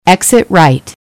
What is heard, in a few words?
Exit Right, eng; waze